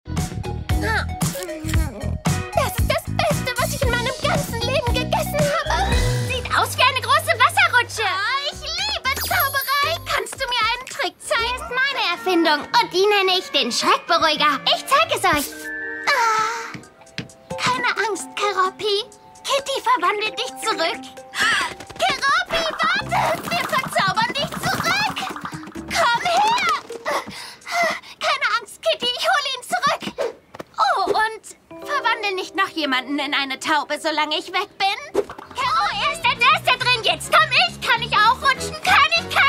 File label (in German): Zeichentrick Mix